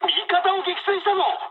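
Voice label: player killed ally mood med, speech japanese; War Thunder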